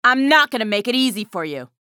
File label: chatter negative; athena; respawn